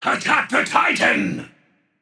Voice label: vox attack m; smite; bakasura